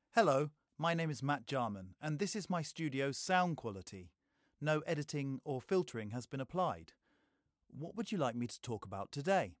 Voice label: Dry Read, mid to deep British voice, Professional, Sincere, Expressive Male